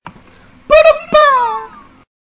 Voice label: funny voice record